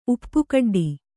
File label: "ಉಪ್ಪುಕಡ್ಡಿ", Kannada